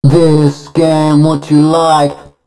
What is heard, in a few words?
male d# 130; Mutekki Media; Dance Ultra Voice VOL-1